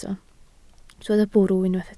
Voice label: "bwrw", Welsh